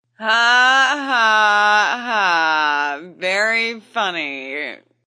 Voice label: Woman Says Ha Ha Very Funny; Talking Sound Effects